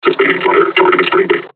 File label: taunt, combine charger; Half Life Alyx